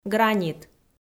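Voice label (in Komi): "гранит", Komi, female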